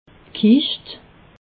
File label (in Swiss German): "kischt", alsace